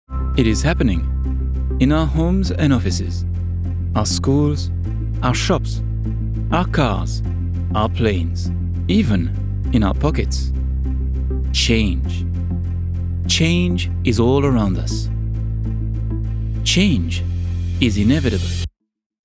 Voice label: international accent commercial conversational